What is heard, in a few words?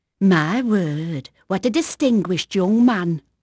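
English female, voice; Pirates Of The Caribbean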